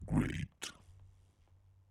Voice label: great, demonic techno voice